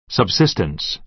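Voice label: "subsistence", American English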